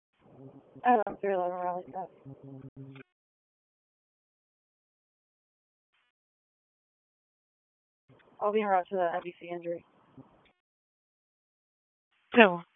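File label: Police District Dispatch; Raleigh; Northeast; wake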